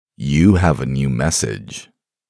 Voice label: man you have a new message; tone; voice ringtones

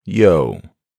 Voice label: "yo", man; voice ringtones

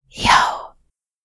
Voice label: asmr girl "yo", soft; ringtone; asmr ringtones

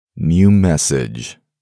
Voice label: man new message; tone; voice ringtones

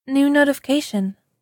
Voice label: new notification, female; message tones

